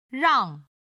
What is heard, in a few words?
"rang4", Chinese